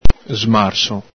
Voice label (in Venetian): "smarso", Veneto